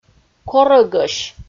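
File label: "корӧгӧсь", Komi, female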